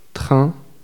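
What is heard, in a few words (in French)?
"train", French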